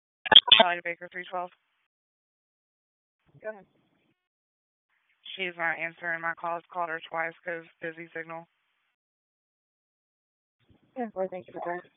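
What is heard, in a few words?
Police Northeast District Dispatch; Raleigh; wake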